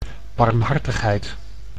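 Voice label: "barmhartigheid", Dutch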